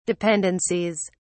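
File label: "dependencies", British English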